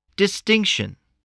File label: "distinction", spelling